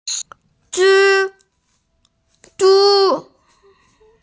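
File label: "zhi zhu", Mandarin Chinese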